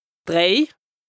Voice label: "dréi", lexique indispensable haut rhin, Alsace; 3

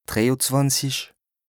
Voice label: "dréi-e-zwànzich", lexique indispensable bas rhin, Alsace; 23

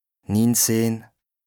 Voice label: "niinzëh", lexique indispensable bas rhin, Alsace; 19

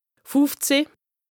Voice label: "fùfzëh", lexique indispensable haut rhin, Alsace; 15; Les nombres; D'Zàhle

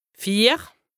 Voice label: "vier", lexique indispensable haut rhin, Alsace; 4

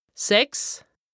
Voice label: "sechs", lexique indispensable haut rhin, Alsace; 6

